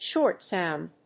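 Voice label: "short sound", English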